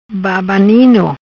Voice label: "Babanino", Macanese; Criança excessivamente mimado; Over-indulged child